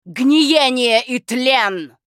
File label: Critical Hit, ru RU; Diablo III; озвучка некроманта